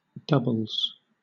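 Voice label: "doubles", British English; pronunciations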